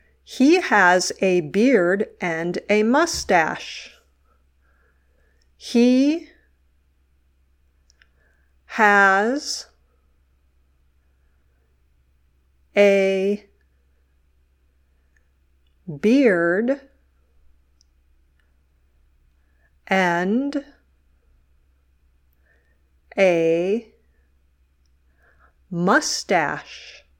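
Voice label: "dictation", English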